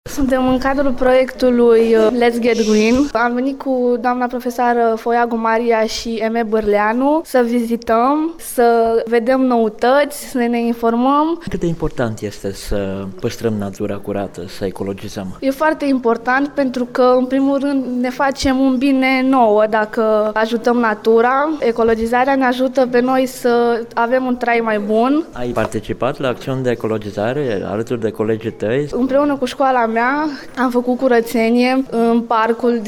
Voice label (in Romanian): vox copii